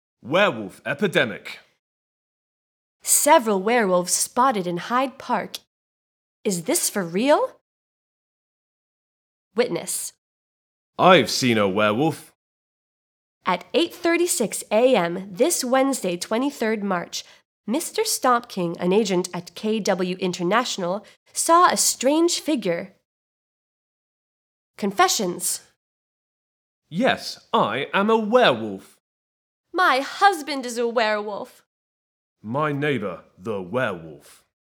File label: Tablet News